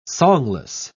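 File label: "songless", British English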